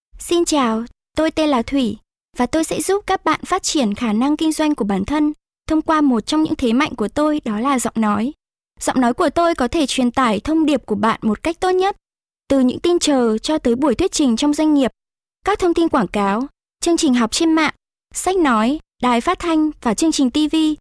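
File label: Vietnamese North, voice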